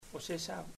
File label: baby, chamacoco; Puerto Diana